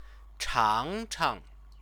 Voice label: "changchang", Chinese

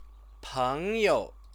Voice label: "pengyou", Chinese

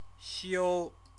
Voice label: "xiu", Chinese

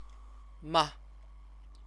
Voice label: "ma", Chinese; 嘛; [particle]; sentence particle

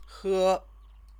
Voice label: "he", Chinese; 喝; drink; verb

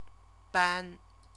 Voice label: "ban", Chinese; CTCFL; University of Oxford

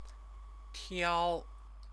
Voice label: "tiao", Chinese

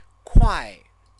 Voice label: "kuai", Chinese

